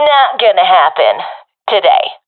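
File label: disagree, professional female; vo; Counter Strike Model Pack; CS:GO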